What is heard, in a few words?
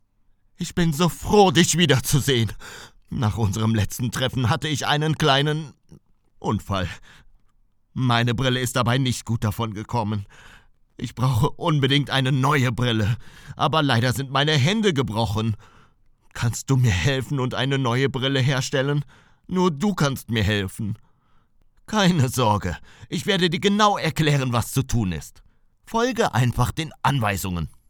E-Learning